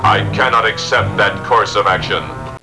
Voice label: Cannot Accept Action, robot; robot b9

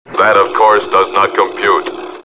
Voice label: that of course does not compute, robot; robot b9

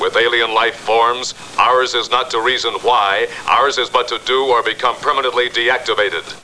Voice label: Do Or Be Deactivated, robot; robot b9

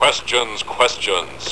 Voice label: Questions Questions, robot; robot b9

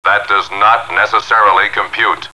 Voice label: Does Not Necessarily Compute, robot; robot b9

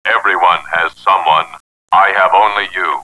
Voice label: i have only you, robot; robot b9

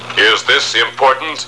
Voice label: is this important, robot; robot b9

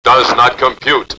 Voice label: does not compute, robot; robot b9

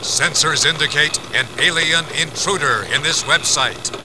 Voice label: Intruder In Website, robot; robot b9

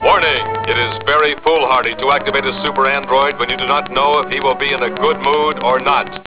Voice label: super android, robot; robot b9

